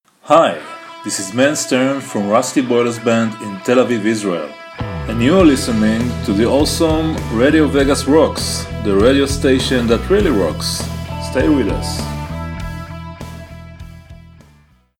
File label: station ID; RadioVegasRocks